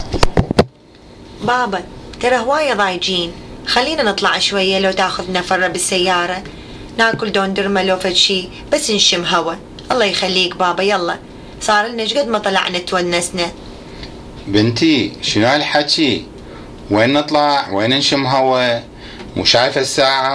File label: Dialogue about Baghdads nights, Iraqi Dialect